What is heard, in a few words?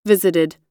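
"visited", American English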